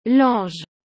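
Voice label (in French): "lange", French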